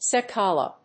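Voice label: "cicala", English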